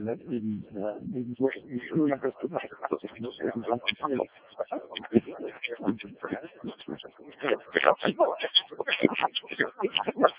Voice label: decoded speech, 7177KHz